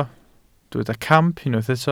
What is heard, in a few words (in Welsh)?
"camp", Welsh